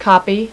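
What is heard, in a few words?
"copy", female; voice; Web Clip Empire 150,000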